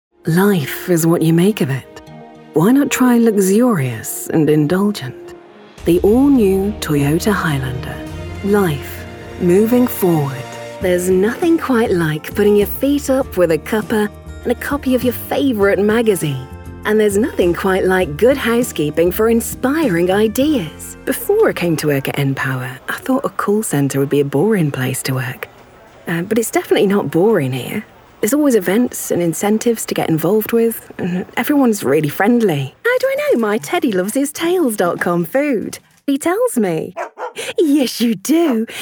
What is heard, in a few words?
Commercial Showreel